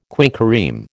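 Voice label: "quinquereme", American English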